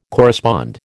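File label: "correspond", American English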